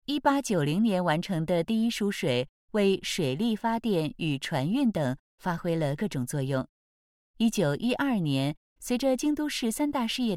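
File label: 2nd exhibition room chinese; Voice guidance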